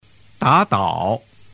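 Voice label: "dadao", Chinese; doi dau giong; giao trinh 301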